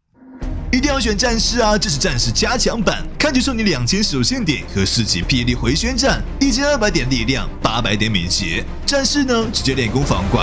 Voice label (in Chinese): 男, 台湾腔, 信息流; 奇跡戰士